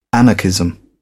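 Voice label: "anarchism", British English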